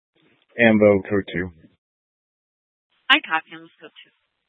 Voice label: Fire Departments, scanner